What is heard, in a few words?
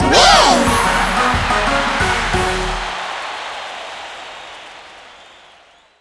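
pvp win effect, English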